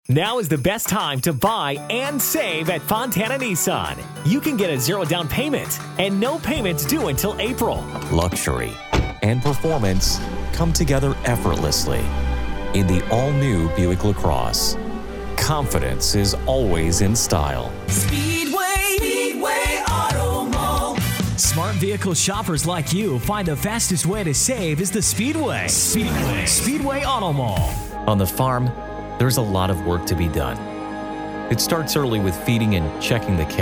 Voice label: Automotive, Voice Overs; Radio Branding